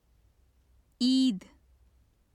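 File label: "eid", Urdu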